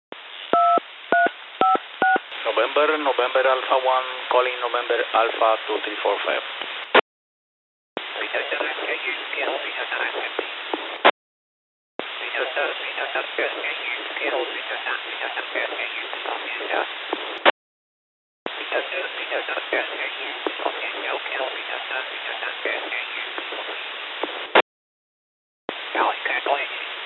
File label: Voice Inversion